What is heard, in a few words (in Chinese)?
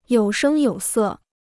"有声有色", Chinese